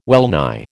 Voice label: "wellnigh", American

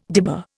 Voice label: "dibber", Indian

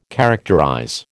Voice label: "characterize", American

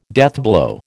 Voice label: "deathblow", American

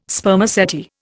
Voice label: "Spermaceti", Indian

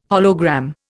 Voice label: "hologram", Indian

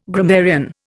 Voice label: "grammarian", Indian

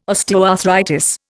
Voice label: "osteoarthritis", Indian

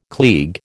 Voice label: "klieg", American

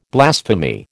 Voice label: "blasphemy", American

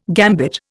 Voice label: "gambit", Indian

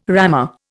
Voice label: "rammer", Indian

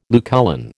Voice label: "Lucullan", American

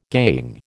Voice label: "gangue", American

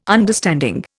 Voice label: "understanding", Indian

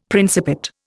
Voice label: "Principate", Indian; Dictionary

